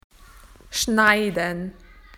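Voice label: "to cut", Australian English; PictureDictionary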